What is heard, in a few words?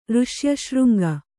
"ಋಷ್ಯಶೃಂಗ", Kannada; řṣyaśřŋga; noun; = ಋಶ್ಯಶೃಂಗ.